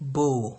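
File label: "bow", Nigerian Pidgin, male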